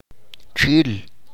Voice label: grillo, chalchiteko

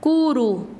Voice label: "gusano", Quechua Chanka

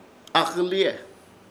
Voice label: "AKƗLIYE" consejos, Ishir Chamacoco

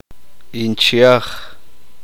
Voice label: uspanteko tia la hermana mayor de su madre

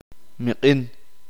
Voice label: uspanteko caliente

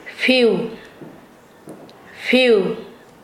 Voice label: semilla, Nasa Yuwe; paez

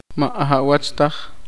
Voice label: "nadie", Poqomchi

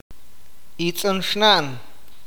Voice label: "hermana menor", Awakateko

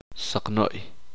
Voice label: uspanteko gusano

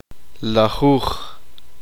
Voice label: "diez", uspanteko

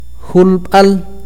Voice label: flecha, akateko

